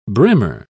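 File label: "brimmer", British English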